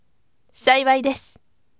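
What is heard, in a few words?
voice, firm; saiwai desu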